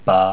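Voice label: "ba", Mandarin Chinese pinyin; pinyin sounds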